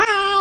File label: cute bye; roblox